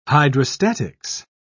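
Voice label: "hydrostatics", British English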